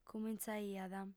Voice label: "cumintzaiat", audiofem